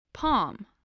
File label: "palm", English voice